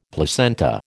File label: "placenta", American